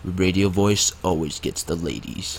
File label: radio voice